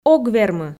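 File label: "ог вермы", Komi, female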